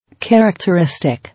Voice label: "Characteristic", English

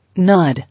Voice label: "Nod", English

